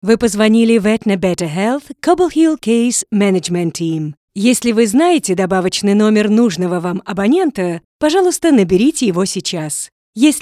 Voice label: Russian